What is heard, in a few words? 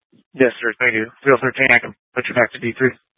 Scottsdale Police, Simulcast